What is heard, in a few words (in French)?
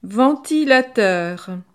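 "ventilateur", French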